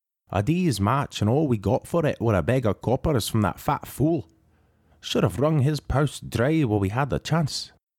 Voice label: Inspiring hero with powerful voice, conveying courage and conviction, Audiobook Hero; Skar